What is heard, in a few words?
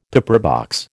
"pepperbox", American